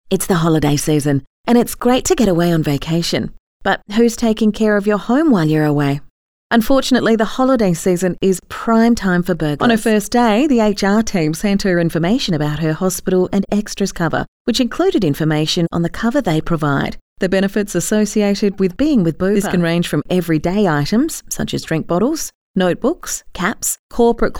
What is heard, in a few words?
Natural